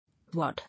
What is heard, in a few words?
"Ward", English